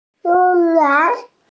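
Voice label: "gong yuan" Chinese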